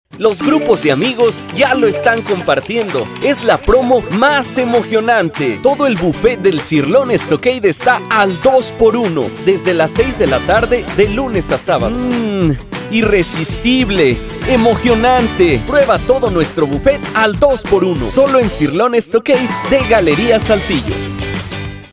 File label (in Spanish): promo